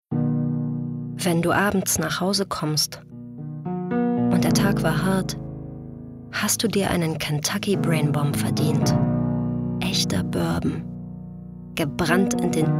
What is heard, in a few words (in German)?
Werbung